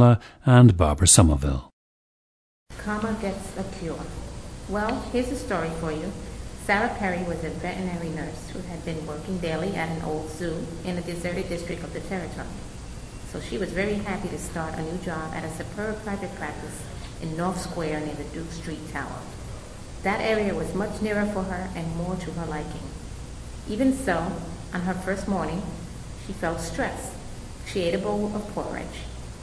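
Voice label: new york, International Dialects of English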